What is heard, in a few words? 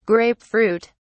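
"grape fruit", British English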